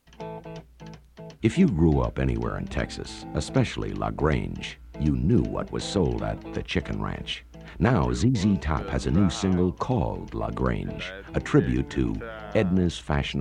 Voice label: Radio Commercials; 1973